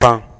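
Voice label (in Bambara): "pan", Bambara word; actions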